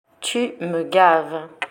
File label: "tu me gaves" French; slang words